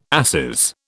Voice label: "asses", British